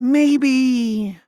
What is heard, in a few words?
maybe